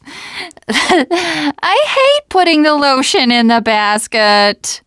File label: second saferoom, teengirl, voice